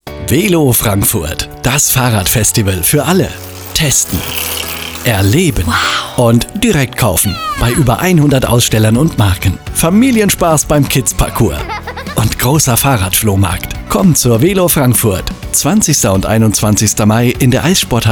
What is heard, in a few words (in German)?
Funkspot; VELOFrankfurt